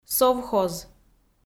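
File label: "совхоз", Komi-Zyrian, female; kpv audio dict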